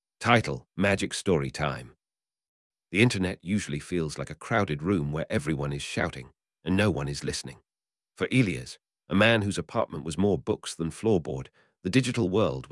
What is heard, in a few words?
english; micro-tales